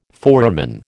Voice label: "foramen", American